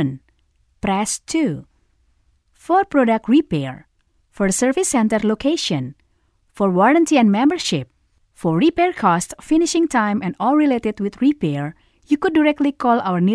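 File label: Indonesian Accented English; GoLocalise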